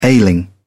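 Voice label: "ailing", British English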